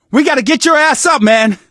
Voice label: revive friend loud, survivor voice